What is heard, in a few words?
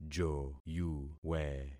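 "joyuwe", concatenated syllables; Affixing experiment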